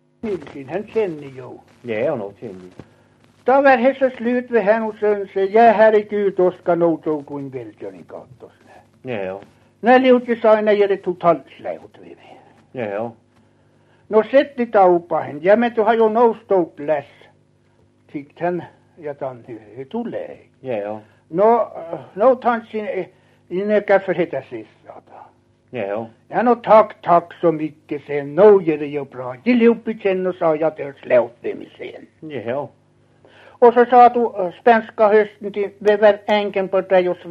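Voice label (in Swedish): Överkalix Norrbotten, dialekter; Folkminnen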